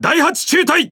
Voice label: Jp Speech Pilots Torpedo ID; World Of Warships